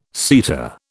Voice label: "seta", British